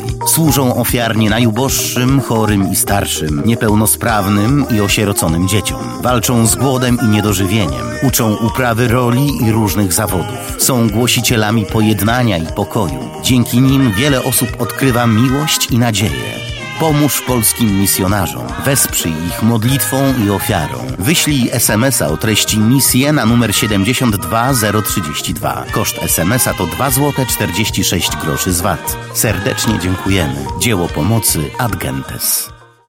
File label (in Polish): spot sms misje